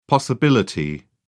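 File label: "possibility", British English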